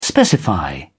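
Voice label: "specify", English, British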